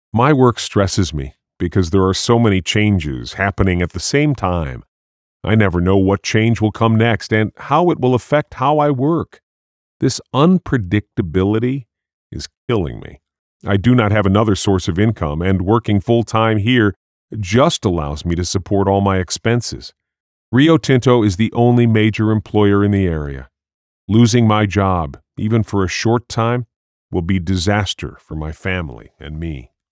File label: Male